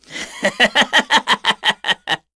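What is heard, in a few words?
Vox Casting, Korean; Ezekiel; kingsraid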